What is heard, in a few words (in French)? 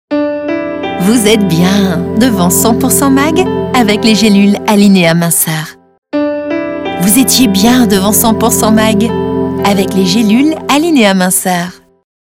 femme posee; AlineaMinceur; Complice